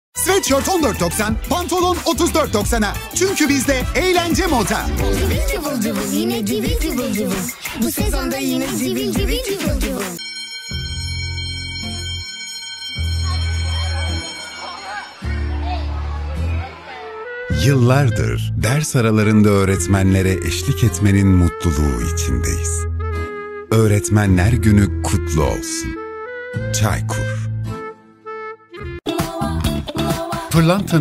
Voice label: turkish commercial; Türkisch